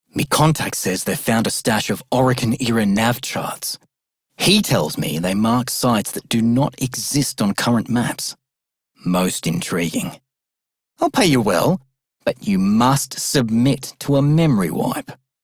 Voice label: Male Generic; Dialog; Warframe; Solaris Venus